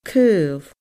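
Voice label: "caol", Scottish Gaelic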